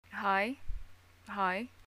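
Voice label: Bahraini greeting hi, arabic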